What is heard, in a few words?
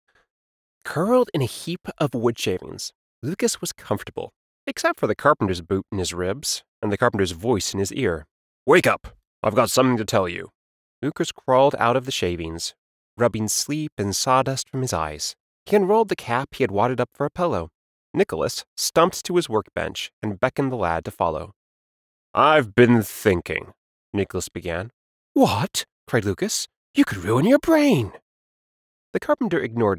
Narration Dialogue Playful Male Voices